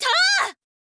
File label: swordwoman attack